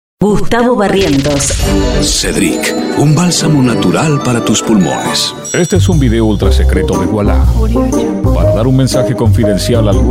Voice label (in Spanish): Reel Locuciones